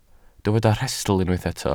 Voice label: "rhestl", Welsh